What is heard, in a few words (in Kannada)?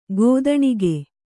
"ಕಥಾನಕ", Kannada